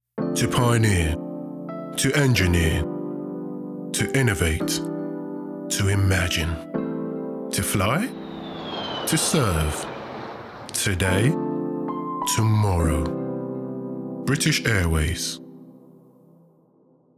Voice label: British Airways, commercials